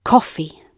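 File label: "coffee" British English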